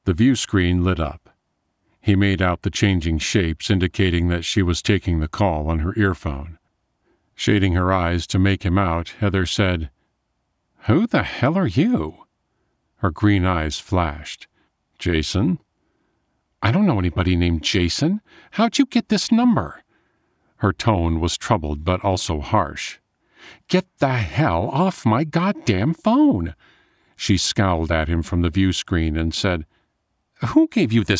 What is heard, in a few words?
Sci-Fi, audiobook